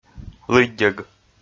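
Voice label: "лыддьӧг", Komi, male; kpv audio dict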